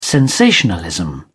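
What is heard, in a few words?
"sensationalism", British English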